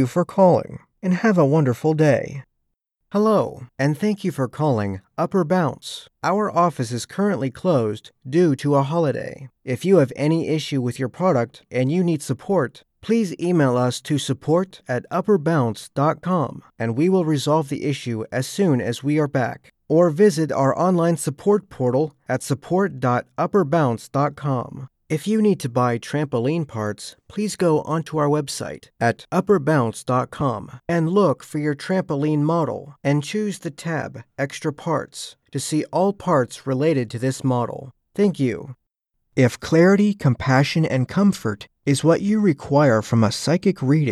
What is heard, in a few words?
ivr voice mail